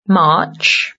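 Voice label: "March", English; Months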